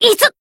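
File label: damaged, Japanese; kid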